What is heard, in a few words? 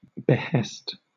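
"behest", British English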